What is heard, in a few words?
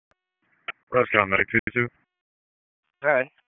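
EMS Dispatch; wake; p25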